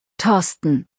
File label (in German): "Thorsten", deutsch, jungennamen